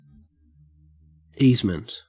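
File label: "easement", Australian English; pronunciation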